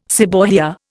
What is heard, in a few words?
"seborrhoea", Indian